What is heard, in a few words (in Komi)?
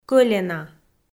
"кӧлена" Komi